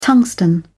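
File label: "tungsten", British English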